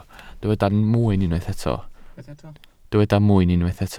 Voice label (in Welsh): "mwyn", Welsh